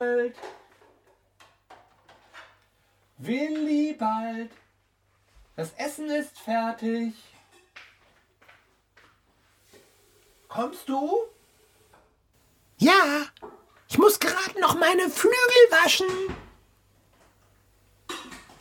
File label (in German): Hoerspiel